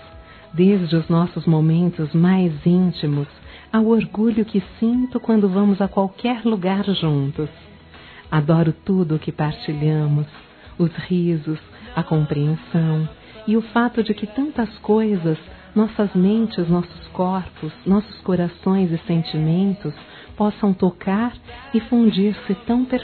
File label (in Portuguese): Aniversário Romantico Feminino; Aniversários